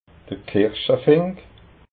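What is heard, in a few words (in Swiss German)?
"kirschafink", Alsatian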